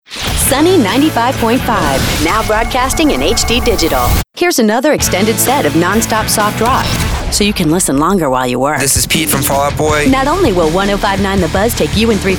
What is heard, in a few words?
radio imaging